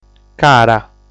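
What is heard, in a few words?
"Cara", Sardinian word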